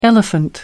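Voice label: "Elephant", English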